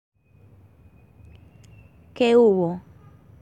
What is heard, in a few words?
Medellin Slang Expression